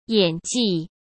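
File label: "演技" Mandarin Chinese; chinese flashcards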